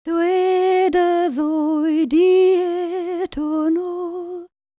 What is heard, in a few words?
WELSH F, World Voices